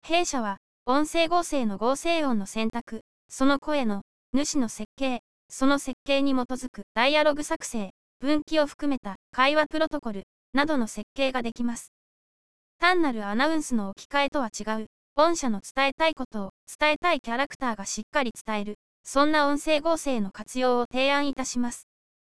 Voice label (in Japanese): 女声優しっかりめ合成の説明部; 音声合成